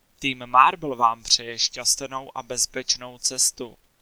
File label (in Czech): Czech; marble